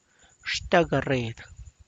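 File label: tlacochahuaya, Zapotec; zhtag garred; cart vertical stake; (Spanish) estara de carretón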